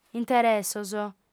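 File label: "interessos", Sardinian, female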